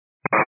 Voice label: 122.925 MHz; scanner radio